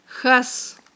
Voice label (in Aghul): "хьас", aghul